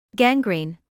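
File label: "gangrene" English, British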